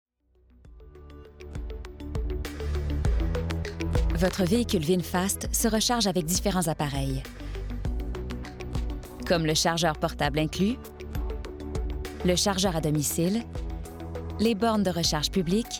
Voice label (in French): Démo voix, Comédienne